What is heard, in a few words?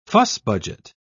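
"fuss-budget", British English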